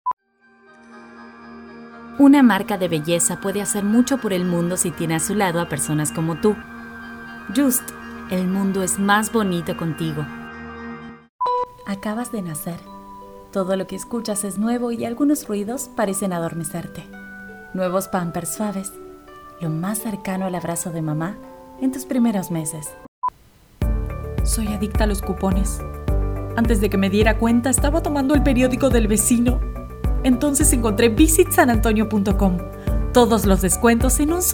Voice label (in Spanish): comercial neutro